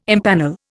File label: "impanel", Indian